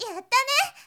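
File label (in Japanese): "yattane", Japanese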